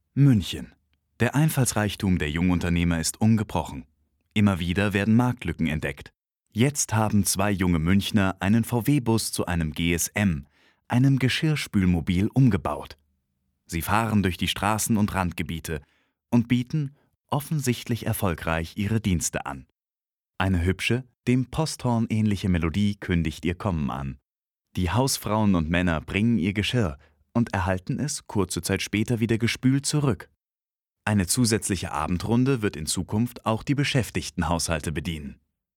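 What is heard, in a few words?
German narration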